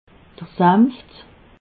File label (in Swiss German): "sanft", alsace